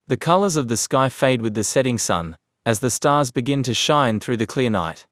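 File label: Australian English; iOS 26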